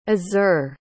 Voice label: "azur", pronounce